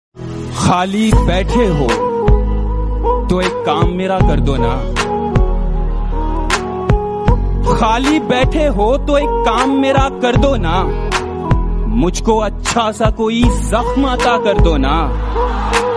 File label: Hindi Ringtone Shayari